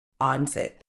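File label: "onset", medical English, British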